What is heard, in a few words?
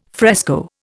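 "fresco", Indian English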